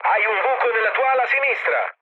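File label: Italian left wing mood low, speech; War Thunder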